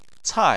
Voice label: "cai4", chinese; Chinese Surnames